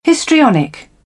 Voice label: "histrionic", British; ɑː - ɒ; English

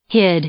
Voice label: "hid", English; verb; i-ɪ

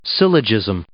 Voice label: "syllogism", English

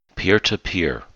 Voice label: "peer-to-peer", English

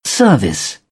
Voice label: "service", British; ɝː - ɜː; English

